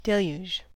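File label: "deluge", US English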